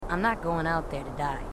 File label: marines female, marine tough